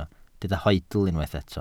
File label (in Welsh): "hoedl", Welsh